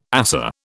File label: "ASA", British